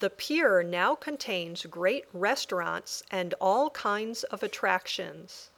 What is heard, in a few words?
Dictation